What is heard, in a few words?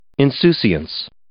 "insouciance", English